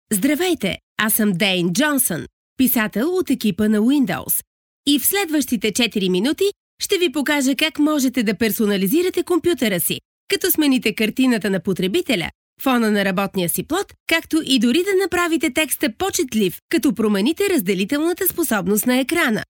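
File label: Bulgarian, female, voice over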